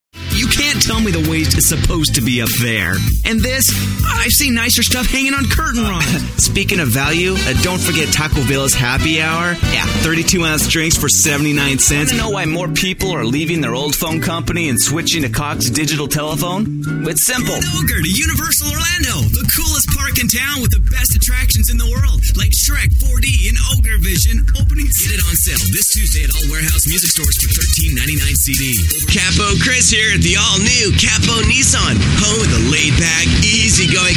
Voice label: Men Commercial